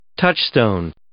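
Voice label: "touchstone", English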